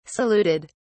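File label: "saluted", British English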